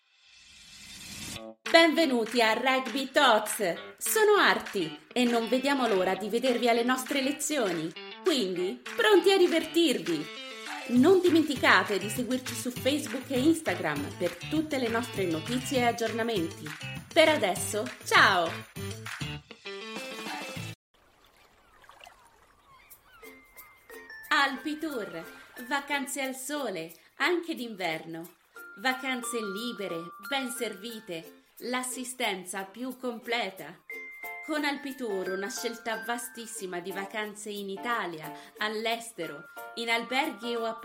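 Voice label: Italian, general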